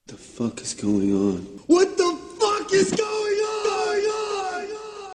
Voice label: Polite Exclamation